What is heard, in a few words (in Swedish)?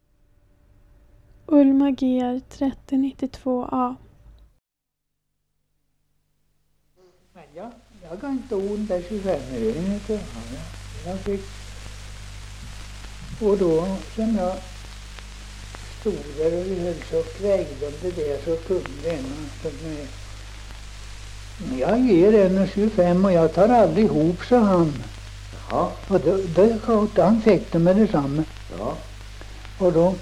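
Tidersrum Östergötland, dialekter; Folkminnen; Svenska sagor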